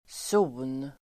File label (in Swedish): "zon", Swedish